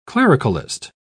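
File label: "clericalist", British English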